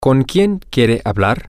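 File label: with whom do you want to speak, Spanish, male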